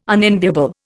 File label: "unenviable", Indian